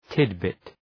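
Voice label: "tidbit", English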